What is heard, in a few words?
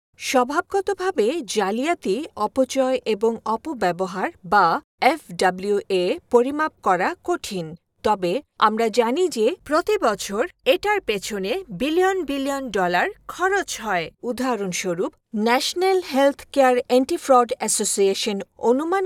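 Bengali, female, E Learning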